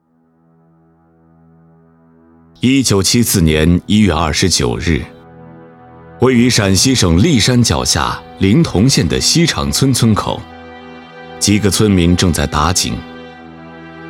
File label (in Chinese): 男国 纪录片 人物; 讲述秦始皇